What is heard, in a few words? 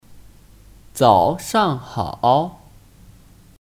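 "早上好", Chinese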